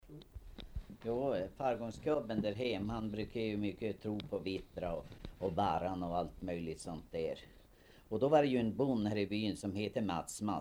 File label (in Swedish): dialekter; Folkminnen; Svenska sagor